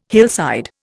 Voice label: "hillside", Indian